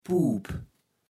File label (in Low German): "Puup", Platt